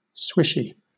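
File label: "swishy", British English; pronunciation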